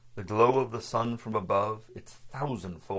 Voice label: LPC Burg Resynthesis; VowelBender; Parallel Audiobook Corpus Treasure

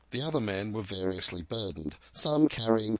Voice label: Phonation Robust Resynthesis; VowelBender; Source Formant examples

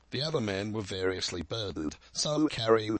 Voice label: DePulse SL Resynthesis; VowelBender; Source Formant examples

